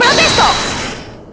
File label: "objection", Spanish